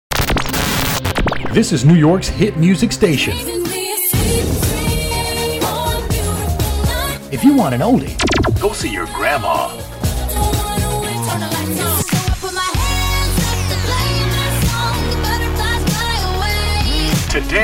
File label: Imaging